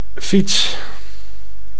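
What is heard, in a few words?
"fiets", Dutch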